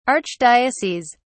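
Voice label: "archdioceses", English, British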